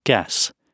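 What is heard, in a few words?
"guess" spoken, British English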